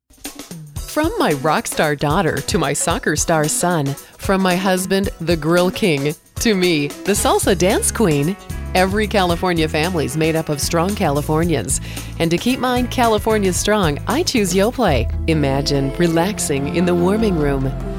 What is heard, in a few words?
commercial